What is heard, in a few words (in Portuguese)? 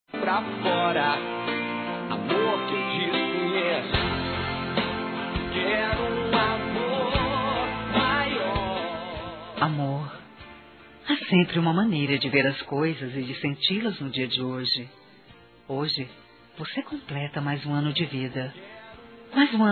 aniversario namorado, fem; Aniversários; ANIVERSARIO romantico